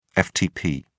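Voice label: "ftp", British English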